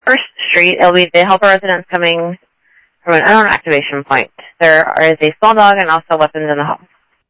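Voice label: Sheriff East County, scanner audio; MCSO - EAST; ThompsonPeakScot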